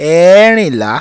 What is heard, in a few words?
"Eerinla", Yoruba